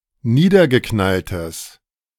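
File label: "niedergeknalltes", German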